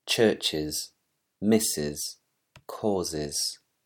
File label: english pronunciation rules